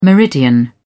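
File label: "meridian", British English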